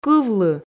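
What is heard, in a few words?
"кывлы", Komi, female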